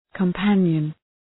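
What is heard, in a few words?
"companion", English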